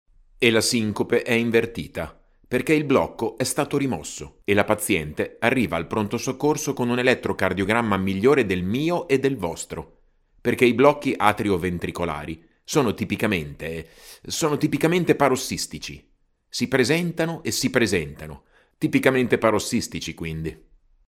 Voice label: Italian voiceover, locutor Italia; locutor italiano